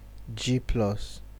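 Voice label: "Gplus", Nigerian Pidgin, male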